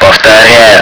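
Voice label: "повторяй", radio; svencoop; paranoia; bolt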